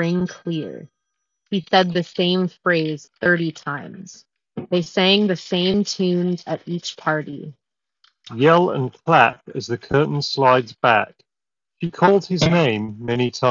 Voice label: Sony WF-1000XM5 Office microphone sample; Pre-release